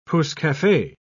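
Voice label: "pousse-cafe", British English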